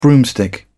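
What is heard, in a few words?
"broomstick", British English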